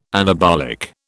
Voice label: "anabolic", British